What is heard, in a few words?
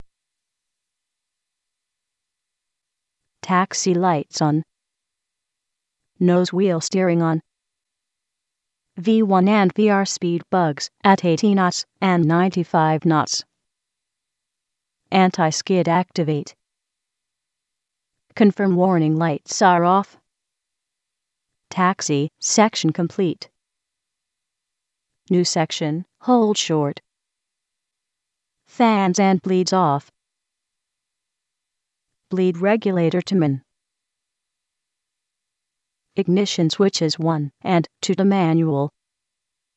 ATT Natural Voice Crystal16